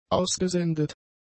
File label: "ausgesendet", German; adjectives